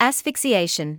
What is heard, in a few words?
"asphyxiation", English